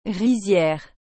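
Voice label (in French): "riziere", French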